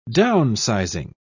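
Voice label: "downsizing", British English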